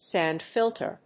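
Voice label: "sandfilter", English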